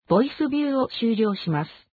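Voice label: exit name, Japanese Japan; ja JP; VoiceView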